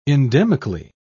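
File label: "endemically", British English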